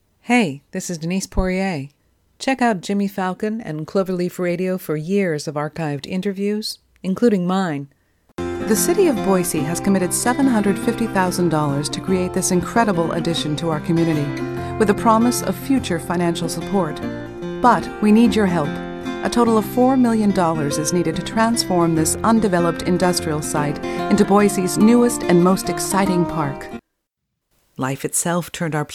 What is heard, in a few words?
Narration VO